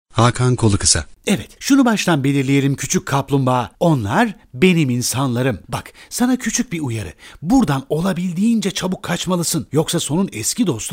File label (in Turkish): animasyon